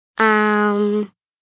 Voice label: "Umm", Response, 1 child, 7 yrs.; Exclamation

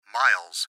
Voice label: Gps Voice, Male

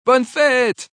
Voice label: Birthday French, Male; Holiday; VoiceBox

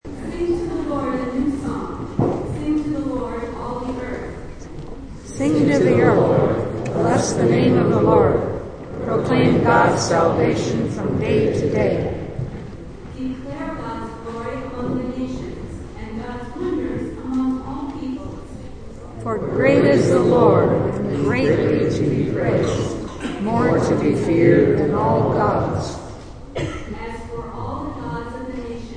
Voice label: Pastor reads Psalm 96; Psalm 96 Reading; Church; Churches

